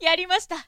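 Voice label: "yarimasita", Japanese, woman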